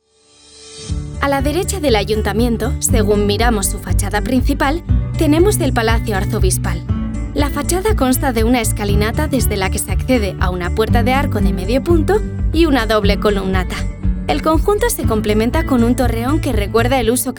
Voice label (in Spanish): NARRATIVO CAS